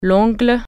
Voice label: fingernail, french